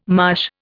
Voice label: "marsh", Indian